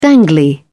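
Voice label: "dangly", British English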